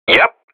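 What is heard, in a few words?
Radio bot response positive; Professional; Counter-Strike: Global Offensive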